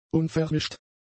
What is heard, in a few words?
"unvermischt", German; adjectives